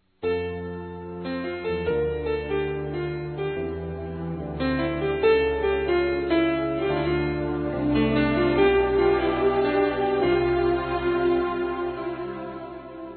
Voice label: Casamento Feminino

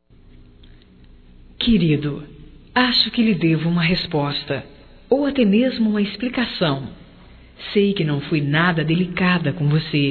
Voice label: DESCULPAS PELO BOLO, Telemensagens Românticas fem

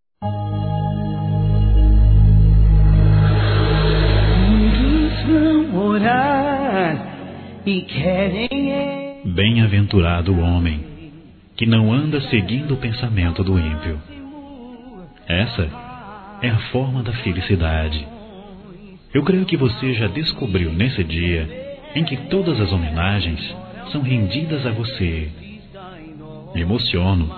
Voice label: Aniversário Neutro Evangelico Masculino

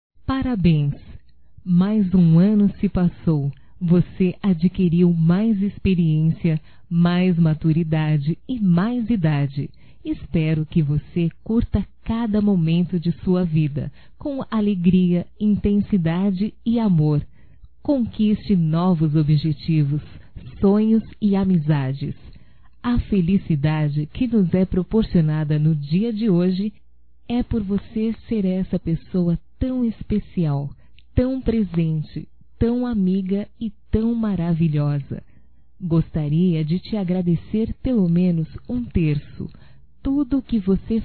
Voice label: Aniversário Neutro Feminino; Aniversarios; Telemensagens; Telemensagens 2017

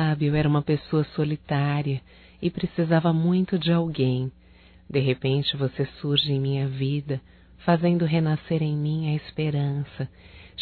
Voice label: ANIV romântico (F); Aniversarios; Telemensagens; Telemensagens 2017

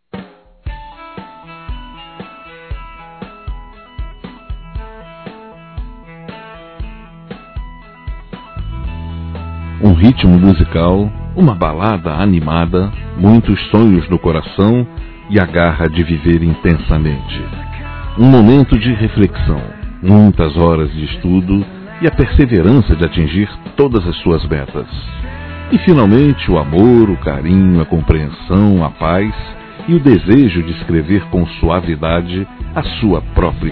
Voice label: Filho (a) Adolescente Masculino; Telemensagens 2017; Aniversários; Aniversário Infantil

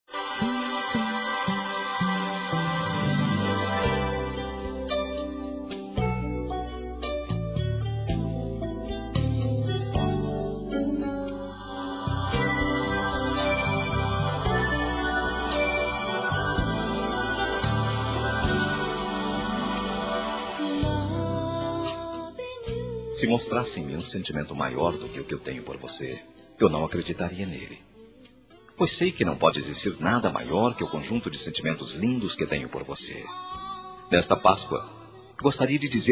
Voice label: FELIZ PASCOA ROMANTICO, Telemensagens

